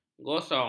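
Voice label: "gosong", Malay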